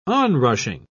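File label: "onrushing", British English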